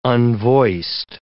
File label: "unvoiced", English